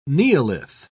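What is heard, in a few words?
"neolith", British English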